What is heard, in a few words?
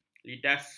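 "lidas", Malay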